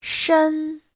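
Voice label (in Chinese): "shen1" Chinese; (tính từ) đậm, sâu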